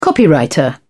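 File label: "copywriter", British English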